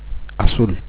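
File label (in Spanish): "azul", Spanish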